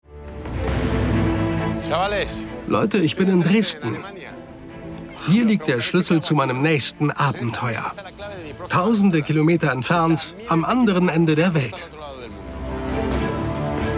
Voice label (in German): Naturdoku, offstimme